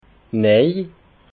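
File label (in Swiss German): "nej", Alsatian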